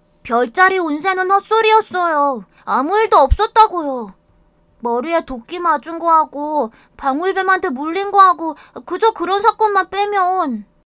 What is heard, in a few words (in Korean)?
아역 남 퉁명스러운